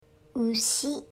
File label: "cow", Japanese